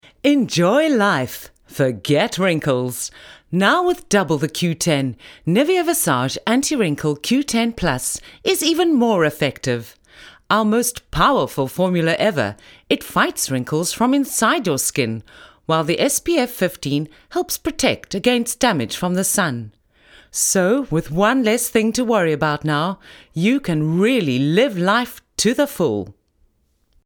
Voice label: soft sell